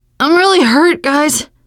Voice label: going to die, teengirl, voice

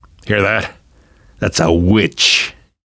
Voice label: heard witch, survivor voice; namvet; Left 4 Dead 2; left4dead2 dlc2

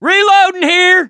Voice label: reload intense, voice, mechanic

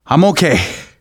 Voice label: getting revived, manager, survivor, voice; Left 4 Dead 2; left4dead2 dlc2

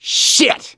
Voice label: reaction negative, voice; gambler

